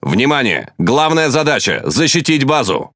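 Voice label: Russian Speech Quick Commands Ally Base; World Of Warships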